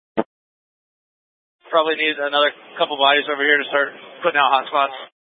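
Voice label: Incident Tactical 2, Scanner audio recording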